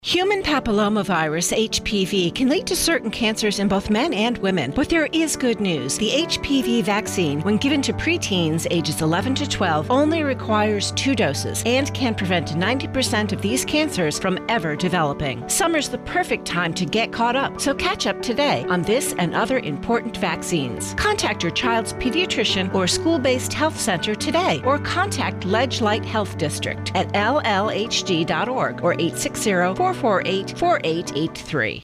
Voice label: HPV radio spot